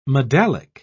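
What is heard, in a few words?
"medallic", English, British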